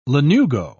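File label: "lanugo", British English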